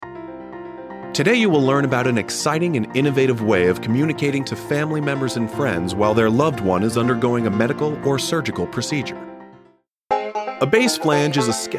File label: eLearning; with music